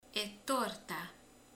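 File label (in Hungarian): "torta", Hungarian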